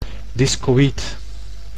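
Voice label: "discoid", Dutch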